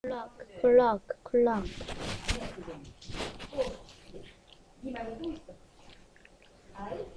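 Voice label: "clock", spoken word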